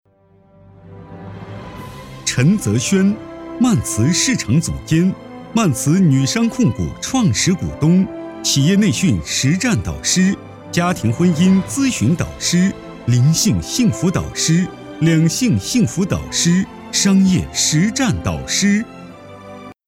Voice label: 男 专题 颁奖人物类

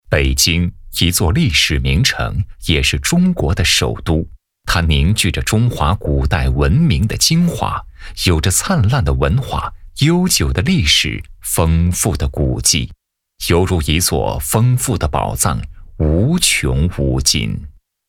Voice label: 男 城市宣传片 京津冀

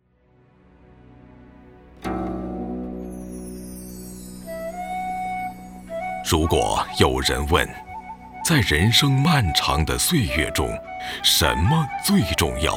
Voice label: 男 宣传片 养生类 低沉神秘; 道教养生

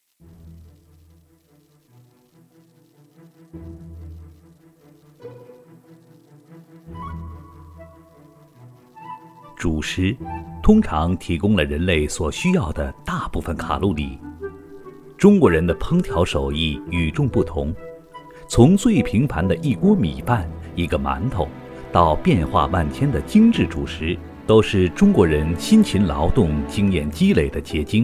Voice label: 男 舌尖体 央视风格

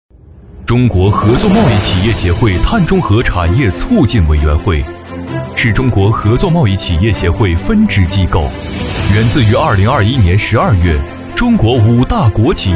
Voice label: 男, 专题, 企业专题; 中国合作贸易

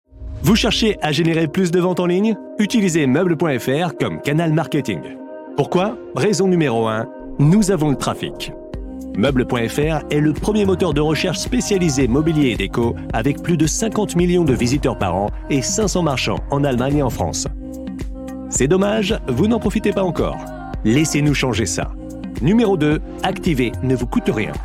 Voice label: 大气激情, 家具

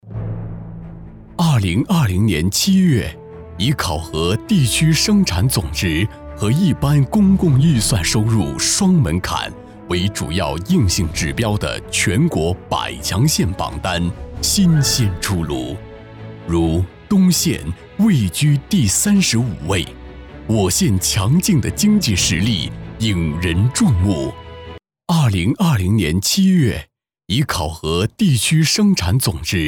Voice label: 粤男 专题; 县政府

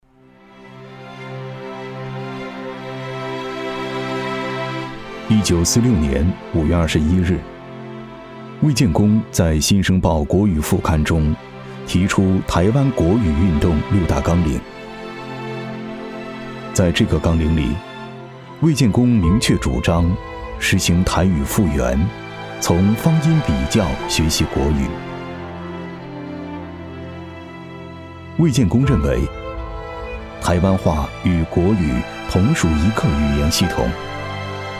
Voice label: 男 纪录片 国语; 正本清源

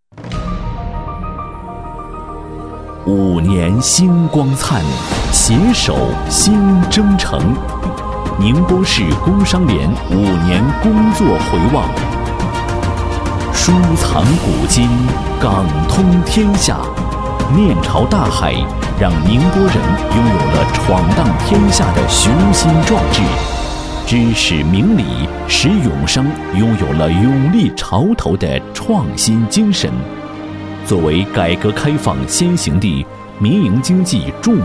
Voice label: 男 宣传片 浑厚大气; 工商联

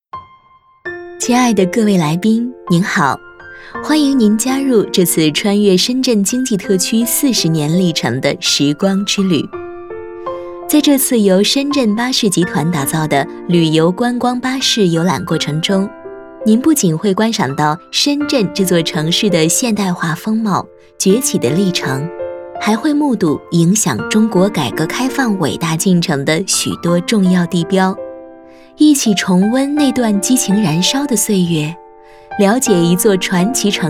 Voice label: 女 专题 亲切甜美 旅游巴士解说

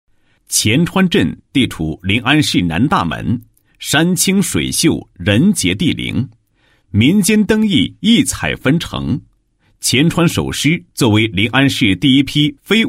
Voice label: 男 申报材料; 申报杭州市非物质文化遗产

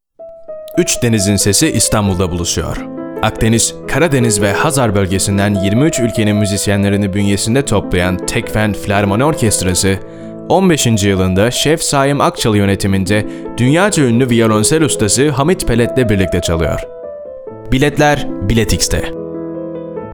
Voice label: 土耳其语男 温柔抒情

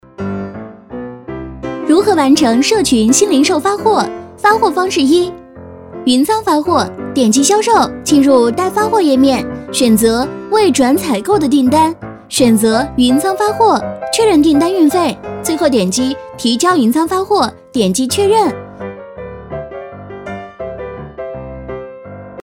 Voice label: 女; 飞碟-新零售发货

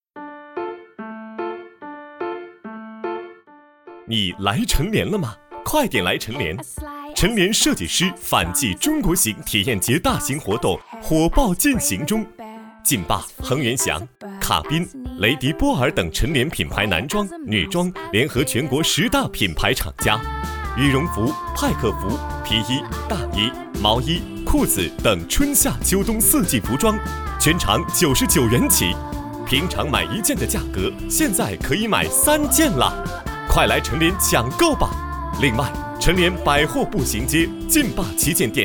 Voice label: 男, 广告; 臣连

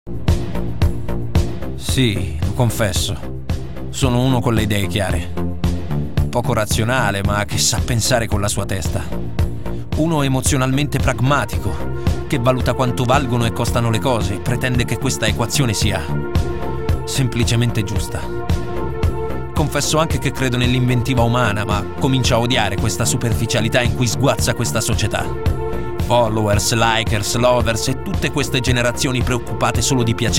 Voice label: 意大利语男 宣传片

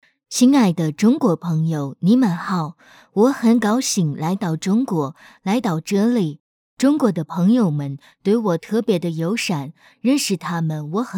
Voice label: 女 模仿外国人说中国话

